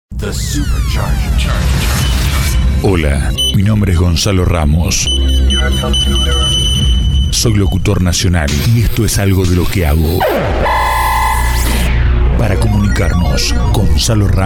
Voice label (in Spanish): acting